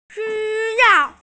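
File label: "need", Mandarin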